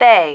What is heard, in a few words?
"bay", female